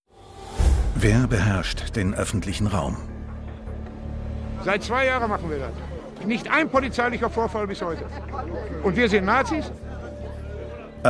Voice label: Narrator; Die innere Unsicherheit – Wenn Buerger Streife gehen; ZDF

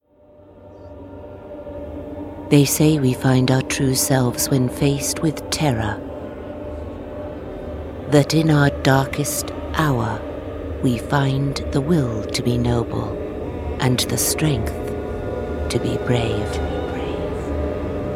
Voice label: Trailer Eng

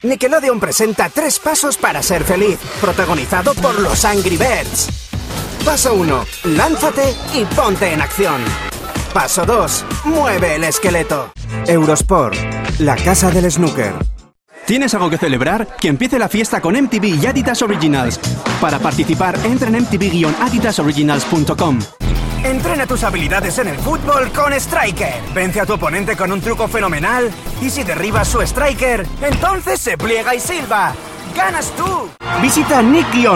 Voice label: Commercial TV

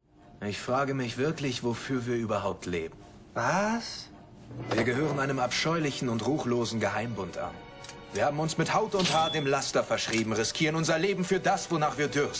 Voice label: Anime; Sekai Seifuku

